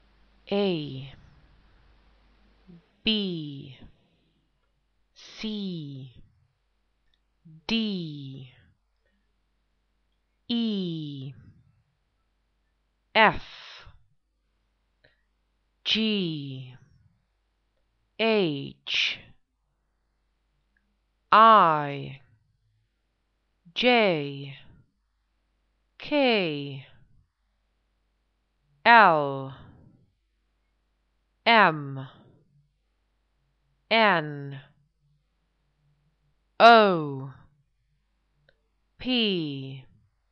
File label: the English alphabet